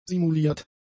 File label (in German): "simuliert", German; adjectives